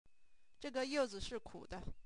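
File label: youzi sentence, Chinese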